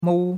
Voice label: "mou1", chinois; Cours de Chinois

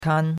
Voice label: "kan1", chinois; Cours de Chinois

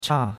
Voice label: "cha4", chinois; Cours-de-Chinois

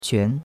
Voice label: "quan2", chinois; Cours-de-Chinois

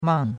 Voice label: "mang4", chinois; Cours-de-Chinois

